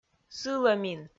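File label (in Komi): "сылӧмин", Komi, female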